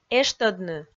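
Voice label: "эштӧдны", Komi, female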